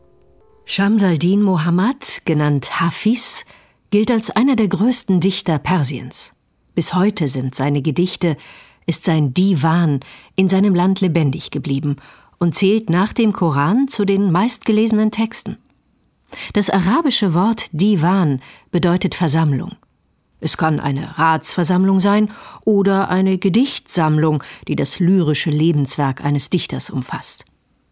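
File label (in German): commentary, Neutral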